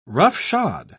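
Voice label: "roughshod", British English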